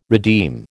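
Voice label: "redeem", American English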